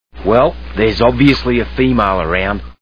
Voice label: female; Crocodile Hunter